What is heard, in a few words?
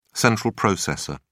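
"central processor", British English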